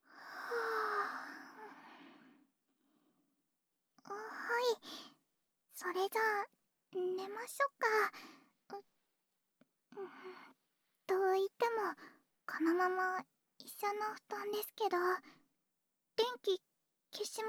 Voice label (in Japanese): 耳舐めささやき; お布団でみっちゃくっ♪ムラつき鎮まれ; 反転